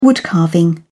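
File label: "woodcarving", British English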